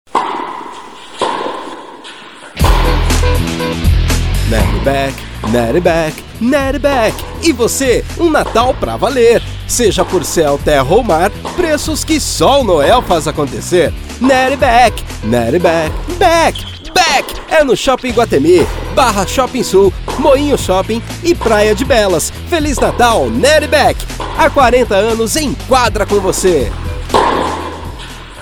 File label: Spot